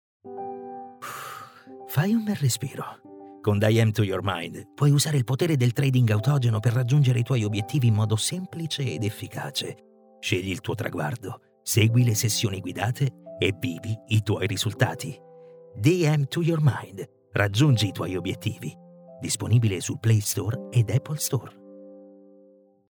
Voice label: male italian voice over sales